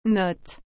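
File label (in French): "note", French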